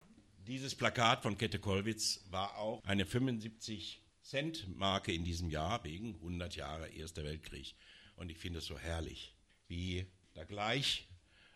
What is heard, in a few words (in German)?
ansage; abgesang